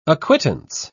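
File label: "acquittance", British English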